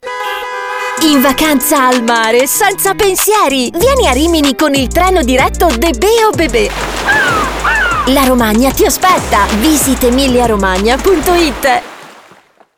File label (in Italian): campagna pubblicitaria radio; db bahn; magnumadv